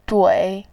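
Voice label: "dui", Mandarin Chinese, third tone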